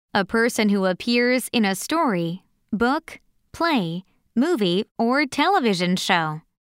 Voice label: character m